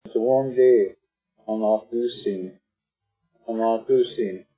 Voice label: warm after cold, honookúúnoo'óó' = it is warming up after being cold, Arapaho; Arapaho Language Project; RMNP; Weather Vocabulary related to Winter